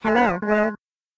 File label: filters, robot